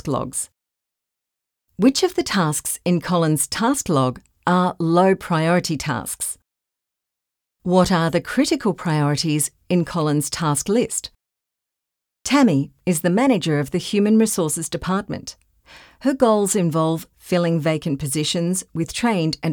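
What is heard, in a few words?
E Learning Corp Training, female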